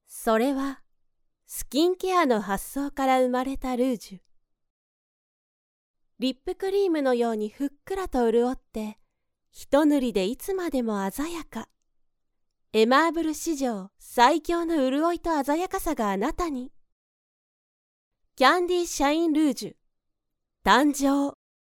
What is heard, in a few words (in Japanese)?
ルージュ CM